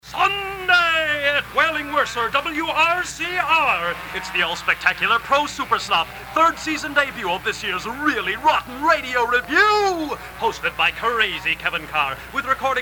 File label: promo dragstrip; WRCR RRRR